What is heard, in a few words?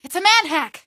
its a manhack, female; Garry's Mod; hl2